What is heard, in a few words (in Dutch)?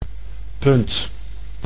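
"punt", Dutch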